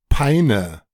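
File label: "Peine" German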